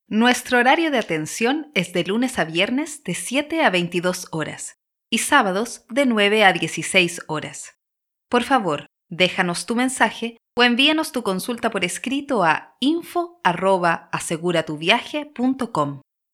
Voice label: Centralita

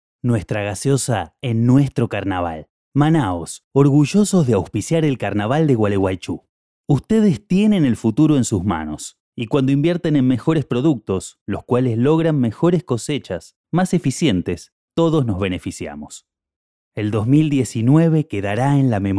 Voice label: RIOPLATENSE

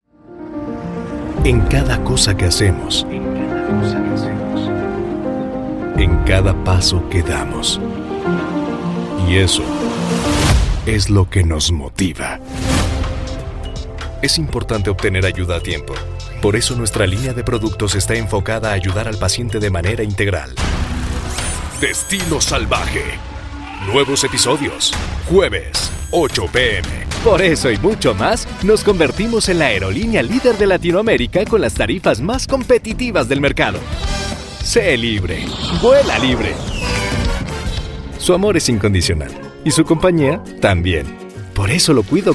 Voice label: COMERCIAL

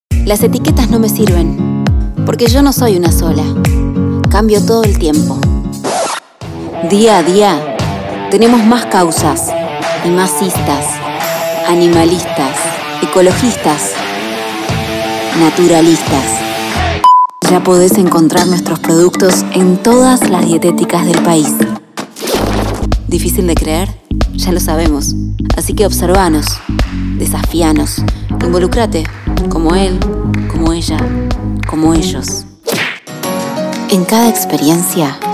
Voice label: Locucion Comercial Acento Argentino

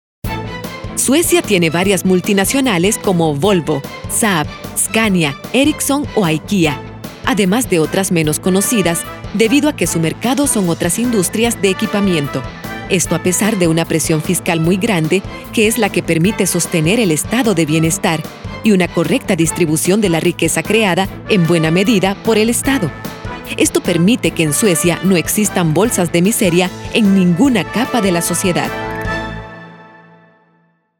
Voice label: Locucion Documental

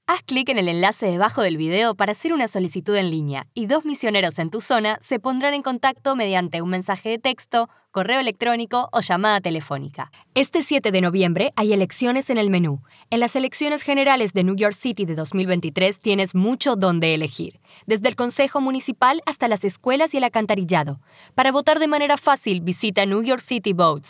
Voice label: PUBLICITARIO

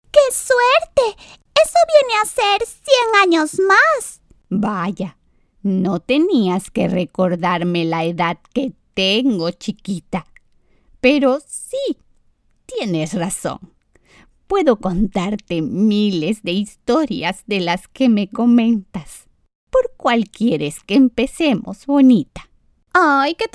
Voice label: Doblaje

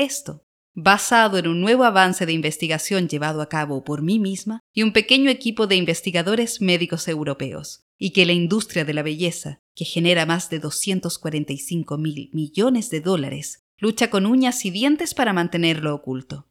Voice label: Fragmento locucion celulitis

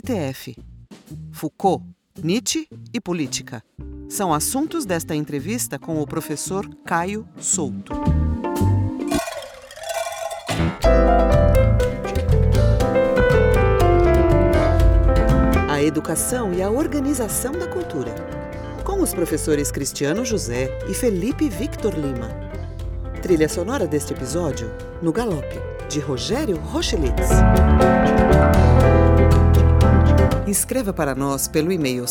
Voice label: podcast; Sagrada Familia

